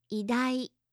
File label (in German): "idai", japanische maedchennamen